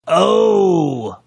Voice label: drawn out "oh"

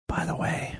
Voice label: whispering by the way

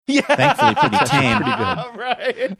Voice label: laughing saying yeah right

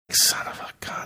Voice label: whispering son of a gun

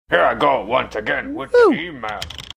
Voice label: saying whoo

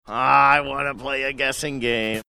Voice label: sing songy

